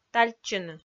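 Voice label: "тальччыны", Komi, female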